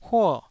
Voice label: "huo4", taiwanese

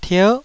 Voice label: "tier9", Taiwanese

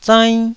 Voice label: "zainn1", taiwanese syllables

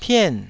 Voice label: "pien4", taiwanese

